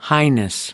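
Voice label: "highness", American English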